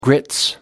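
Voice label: "grits", British English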